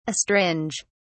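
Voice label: "astringe", British English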